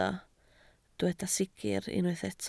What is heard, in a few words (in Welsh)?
"sicr", Welsh